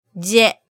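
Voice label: "je", Japanese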